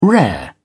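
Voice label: "rare", British English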